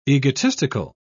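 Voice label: "egotistical", British English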